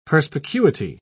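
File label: "perspicuity", British English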